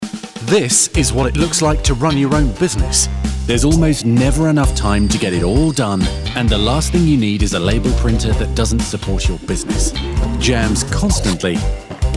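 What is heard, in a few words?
corporate narration explainer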